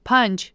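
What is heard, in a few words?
"panch", Tajik, female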